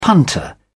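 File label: "punter", British English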